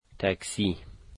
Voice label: taxi, Dari